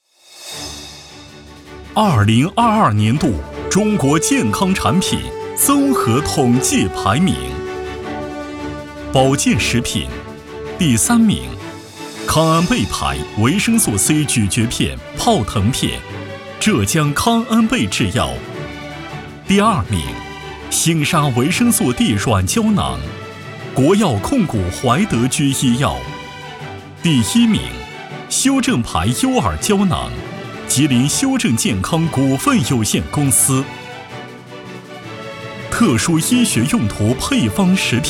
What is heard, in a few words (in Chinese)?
男 颁奖; 产品榜